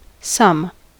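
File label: "sum", American English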